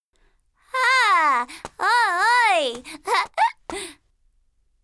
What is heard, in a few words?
FEMALE Emotion